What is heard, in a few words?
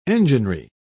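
"enginery", British English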